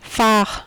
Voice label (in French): "fard", French